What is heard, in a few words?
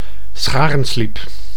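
"scharensliep", Dutch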